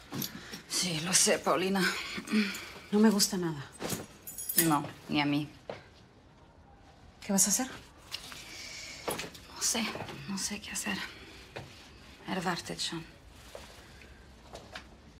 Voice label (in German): Spanisch; der Gute Bulle; ZDF; LOFT VOICES